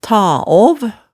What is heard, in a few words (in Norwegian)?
"ta aav", hemsedalsmaalet tale